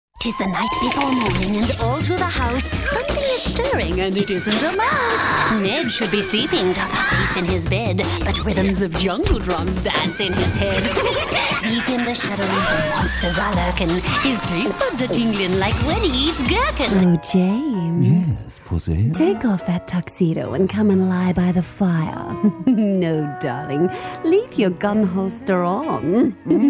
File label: character